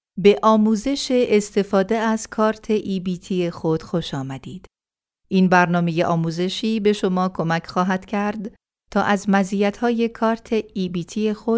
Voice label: Farsi Female

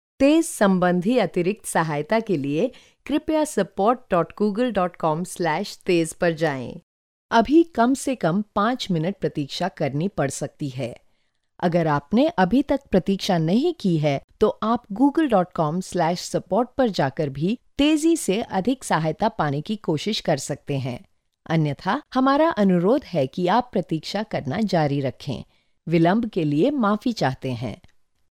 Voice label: Hindi Female

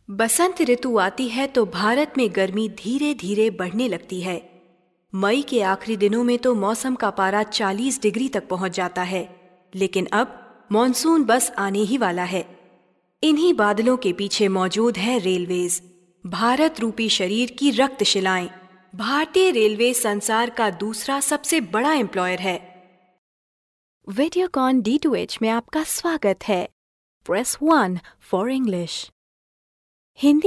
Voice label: Hindi Female

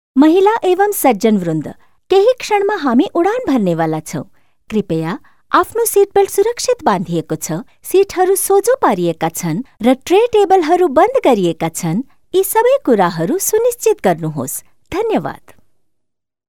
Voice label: Nepalese Female

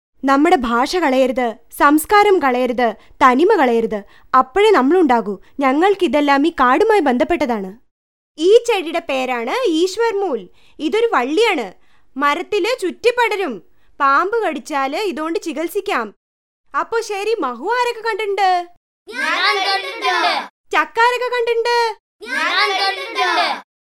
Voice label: Malayalam Female

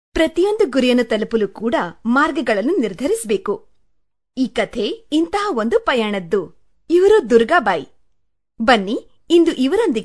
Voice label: kannada female

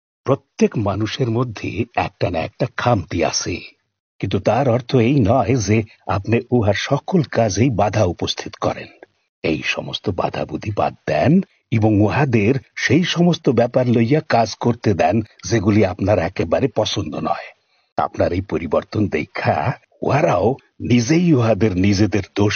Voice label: Bangladeshi Male

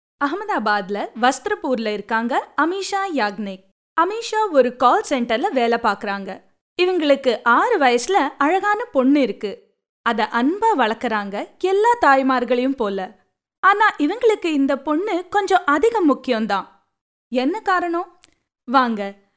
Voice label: Tamil Female

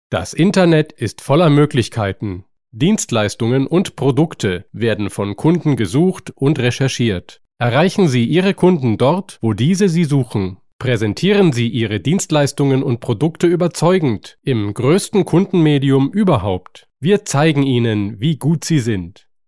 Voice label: german male

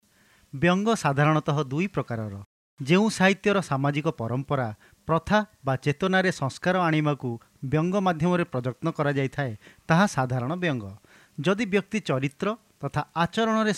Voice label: Oriya Male

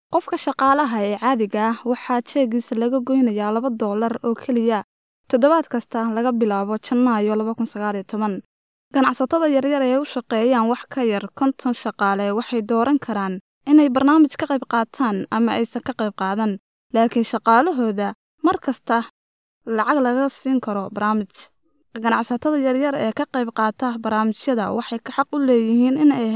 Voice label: somali female

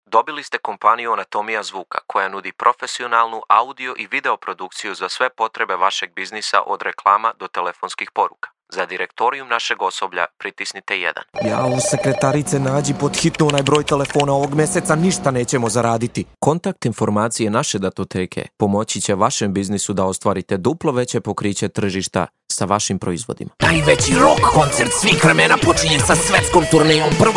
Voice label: Serbian Male

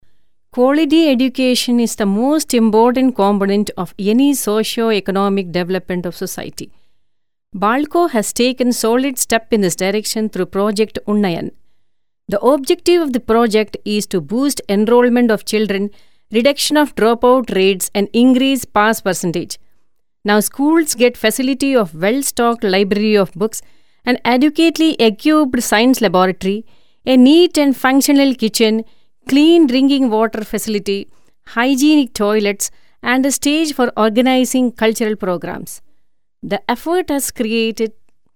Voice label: Eng Indian Kerala Female

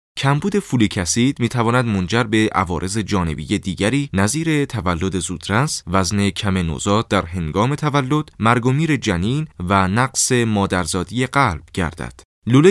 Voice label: Farsi Male

